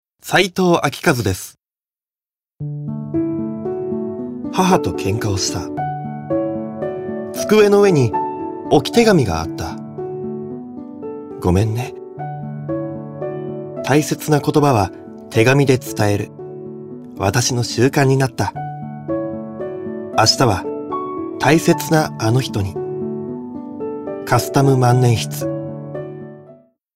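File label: commercial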